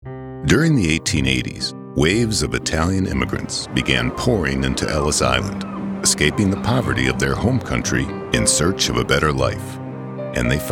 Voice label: narration